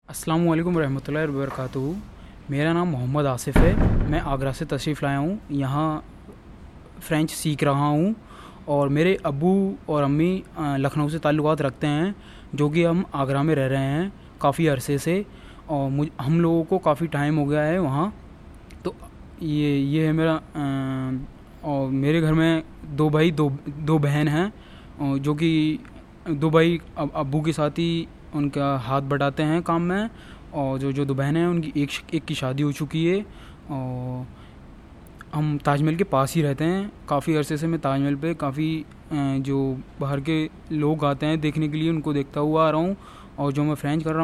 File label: urdu; tamil nadu